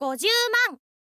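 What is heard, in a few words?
"gozyuuman", Japanese, girl; voice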